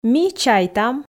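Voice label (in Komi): "ми чайтам", Komi, female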